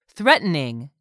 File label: "THREATENING", english; 3000 words